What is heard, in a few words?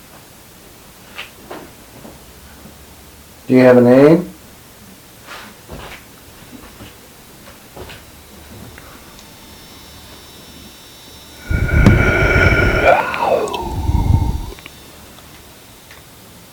loud evp